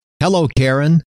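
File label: "hello", male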